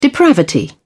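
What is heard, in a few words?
"depravity", English, British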